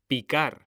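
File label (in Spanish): "PICAR", Spanish; mekanta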